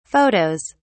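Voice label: "photos", British English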